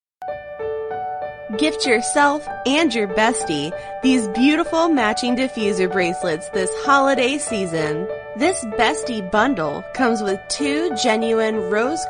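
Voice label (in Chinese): 外籍女英_外语_美式英语_样音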